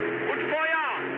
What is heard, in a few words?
attack position, mood high, speech ge